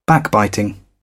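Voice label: "backbiting", British English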